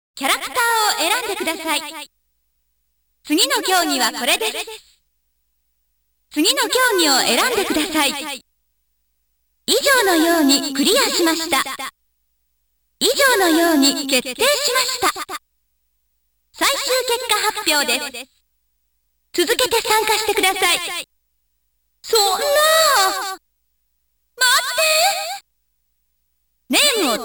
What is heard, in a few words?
Announcer (Japanese Version); Namco Game Sound Express Vol.19; Mach Breakers